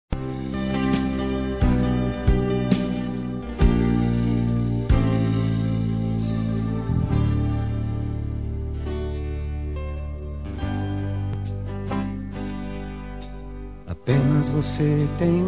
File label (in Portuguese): Aniversário Tio Masculino; Aniversários; Aniv Familiar